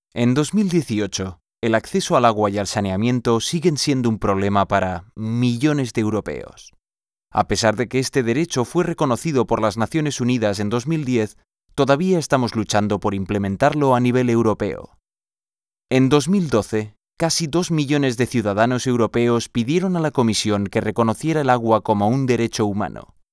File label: Water Day Animation VO Spanish